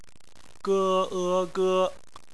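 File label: "ge" bopomofo, Chinese; phonetics; bpmf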